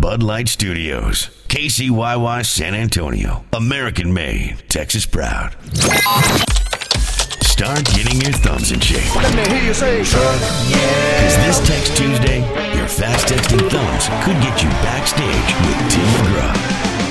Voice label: RADIO IMAGING Country